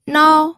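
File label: "nao", first tone, pinyin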